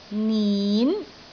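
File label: "nin2", Cantonese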